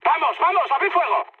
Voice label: attack position, mood high, speech; War Thunder